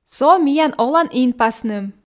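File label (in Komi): "со миян олан инпасным", Komi, female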